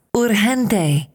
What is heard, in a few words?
"urgent", spanish